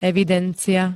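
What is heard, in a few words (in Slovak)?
"evidencia", Slovak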